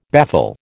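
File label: "baffle", American English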